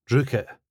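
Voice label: "drookit", Scottish English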